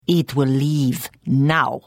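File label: Hello, Khajiit female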